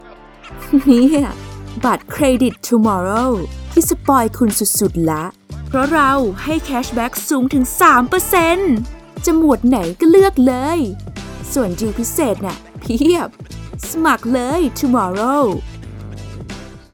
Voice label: thai